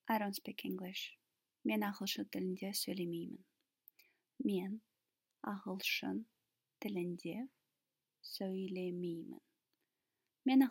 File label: I dont speak English, kazakh